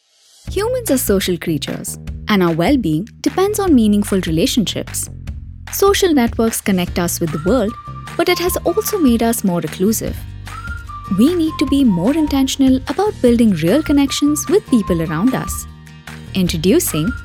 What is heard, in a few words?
Female